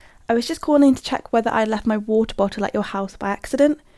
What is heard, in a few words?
Voice Message